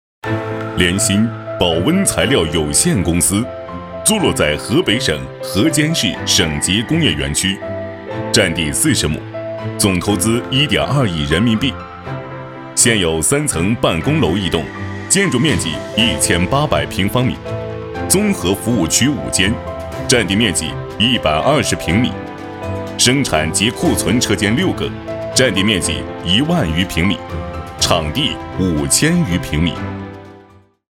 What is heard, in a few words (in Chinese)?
男 企业宣传 保温材料; 联鑫